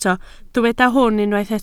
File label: "hwn", Welsh